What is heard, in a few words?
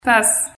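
Haitian Creole; tasse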